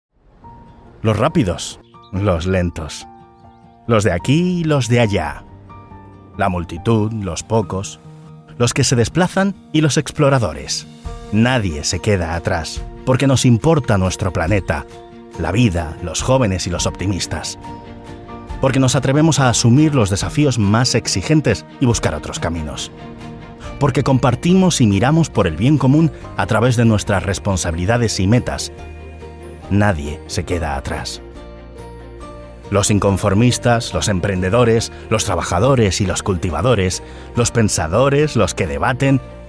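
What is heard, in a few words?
es corporate, Spanish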